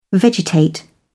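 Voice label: "vegetate", British English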